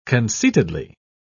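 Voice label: "conceitedly", British English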